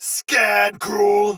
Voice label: Sight Lost Shout Soldier Sword Agent Male, Battle; Dialog; Barks; Melee; Sinecure